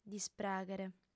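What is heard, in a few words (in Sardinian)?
"dispràghere", female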